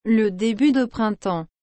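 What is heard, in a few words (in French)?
"le début de printemps", French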